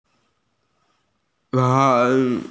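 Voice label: "chang4", Mandarin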